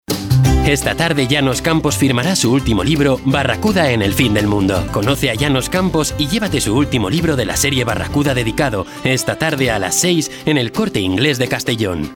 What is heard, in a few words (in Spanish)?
comercial